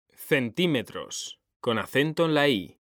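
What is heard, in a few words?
"centímetros", Spanish, male; mekanta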